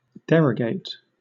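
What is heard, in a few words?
"derogate", British English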